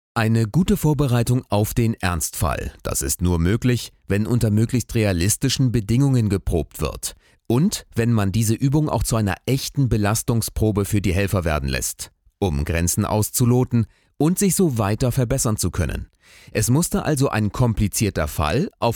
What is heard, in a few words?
stimmprobe voiceover